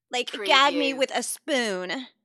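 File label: Teen Girl Says Gag Me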